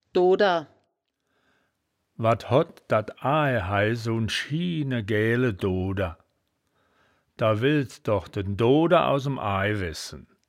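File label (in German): "dooder", wittlicher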